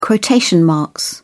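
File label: "quotation marks", English, uk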